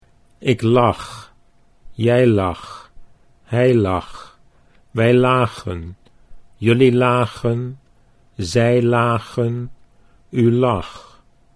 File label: "ik lag jij lag", Dutch